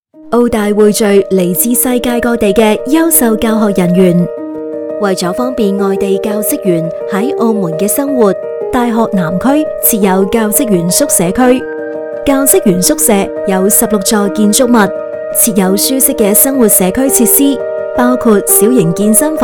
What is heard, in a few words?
cantonese; 教職工宿舍